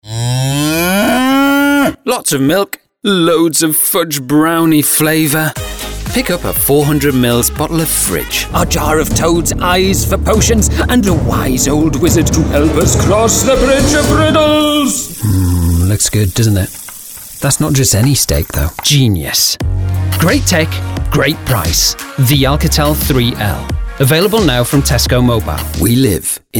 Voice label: commercial